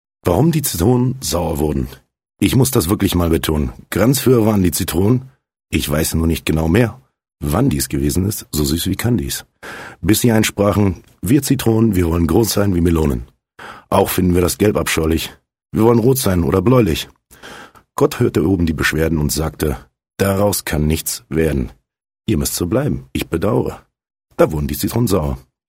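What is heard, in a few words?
German, male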